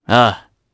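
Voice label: voice Aff2, voices heroes, Japanese; kingsraid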